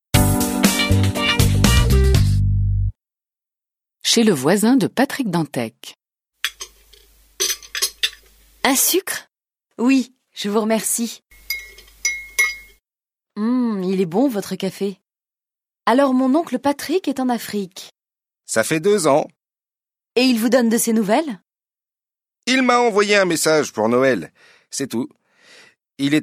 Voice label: French